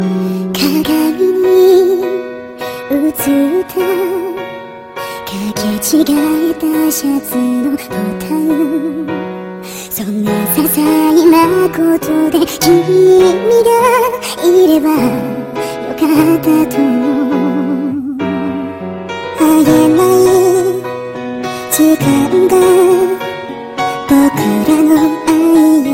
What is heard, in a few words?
Chipmunk